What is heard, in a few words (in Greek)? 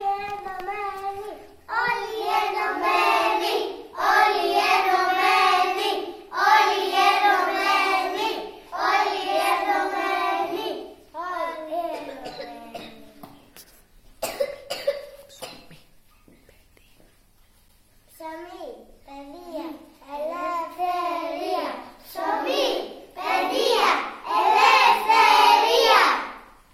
φωνάζοντας συνθήματα